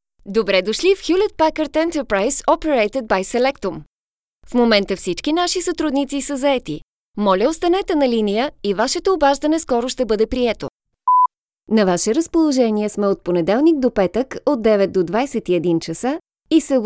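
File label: Bulgarian, female, IVR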